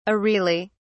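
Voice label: "areolae", English, British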